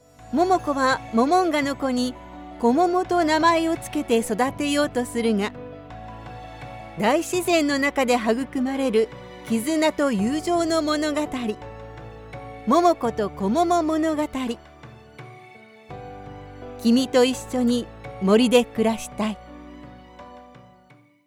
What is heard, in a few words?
japanese movie trailers